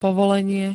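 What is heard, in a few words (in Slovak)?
"povolenie", Slovak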